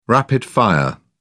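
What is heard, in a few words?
"rapid fire", British English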